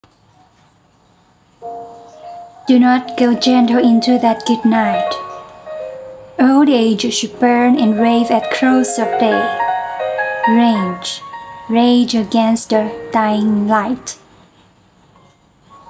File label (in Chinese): 英文台词